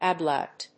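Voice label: "ablaut", English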